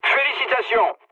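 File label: player killed enemy, mood low, French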